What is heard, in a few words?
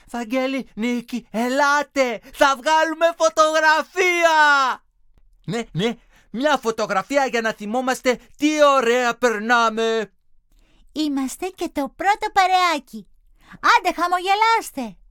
dialog